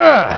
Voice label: pain